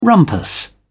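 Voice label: "rumpus", English, British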